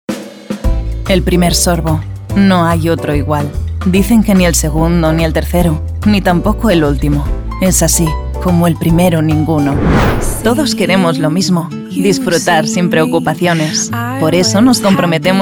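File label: ESEU General, European Spanish; GoLocalise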